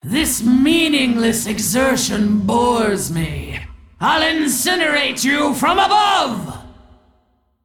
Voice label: Female Dragon Victory Pre Explosion

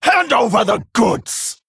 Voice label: Female Worgen Attack

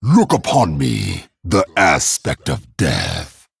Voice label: Male Dragon Greetings

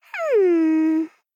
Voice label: Female Gnome Thinking

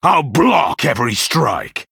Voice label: Male Human Hero Power

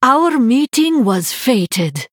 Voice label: Female Draenei Greetings

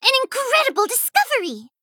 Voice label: Female Gnome

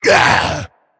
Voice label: Male Flamewaker Death

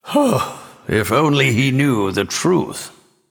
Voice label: Male Nightborne Victory

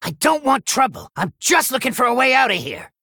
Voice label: Male Gnome; Scabbs

